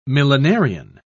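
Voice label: "millenarian", British English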